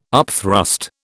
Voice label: "upthrust", British

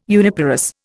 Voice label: "uniparous", Indian

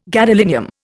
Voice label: "gadolinium", Indian

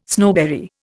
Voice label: "snowberry", Indian

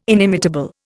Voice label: "inimitable", Indian

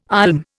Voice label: "olm", Indian

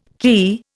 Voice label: "quay", Indian

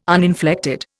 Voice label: "uninflected", Indian

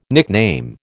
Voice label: "nickname", American

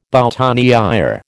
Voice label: "boutonnière", American

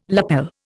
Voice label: "lapel", Indian

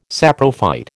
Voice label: "saprophyte", American

